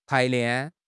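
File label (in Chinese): "排练", Chinese; HSK6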